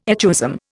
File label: "echoism", Indian